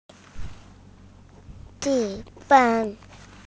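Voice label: "qu1 fen1", Mandarin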